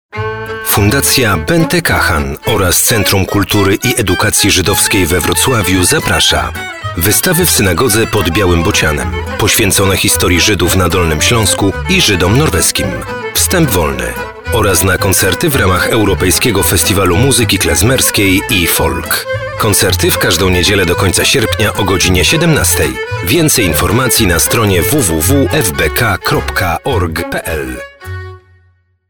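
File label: Polish, male, announcement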